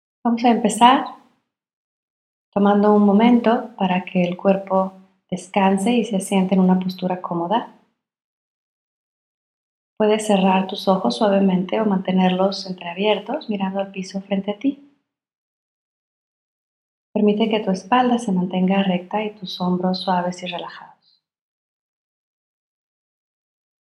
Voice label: One Complete Cycle of Breath Spanish; 28 Day Challenge in Spanish (LA)